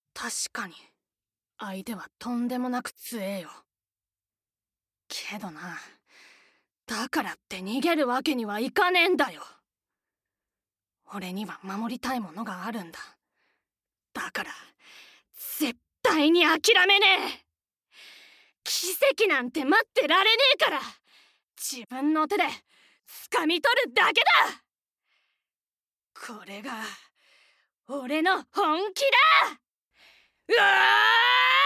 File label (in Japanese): セリフ 少年